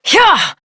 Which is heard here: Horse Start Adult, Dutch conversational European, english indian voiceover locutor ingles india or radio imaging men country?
Horse Start Adult